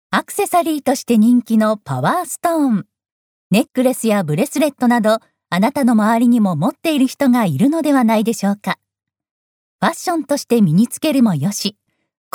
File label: narration